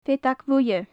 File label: "feadag bhuidhe", gaelic; Faclan Nàdair